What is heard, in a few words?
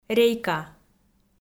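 "рейка", Komi, female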